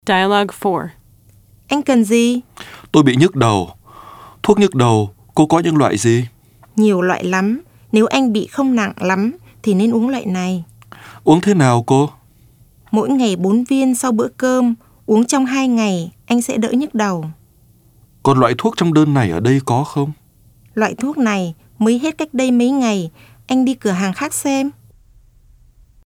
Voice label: Dialog